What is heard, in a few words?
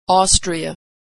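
"Austria", American English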